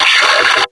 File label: "check", combine soldier; hl2